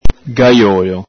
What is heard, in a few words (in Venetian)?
"gaiolo", Veneto